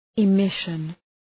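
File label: "emission", English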